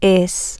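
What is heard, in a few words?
he she it is permanent, spanish, female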